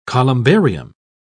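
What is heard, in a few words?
"columbarium", British English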